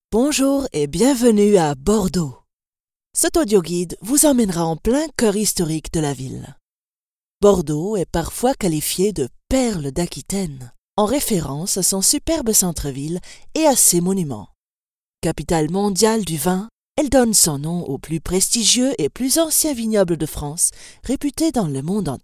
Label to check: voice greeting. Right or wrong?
Wrong